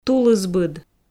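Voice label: "тулысбыд", Komi, female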